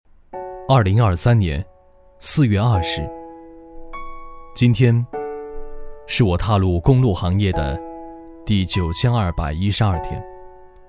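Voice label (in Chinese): 男【宣传片】; 段长的一天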